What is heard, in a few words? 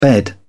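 "bed", British English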